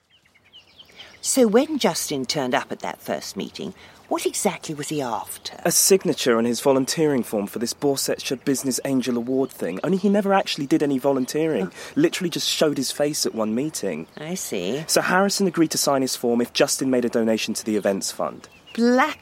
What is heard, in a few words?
RP Radio Drama; 20s-30s. Male. London. Home Studio.